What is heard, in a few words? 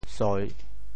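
"soi3", Teochew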